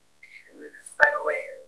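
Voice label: Voice Acting, Spanish, male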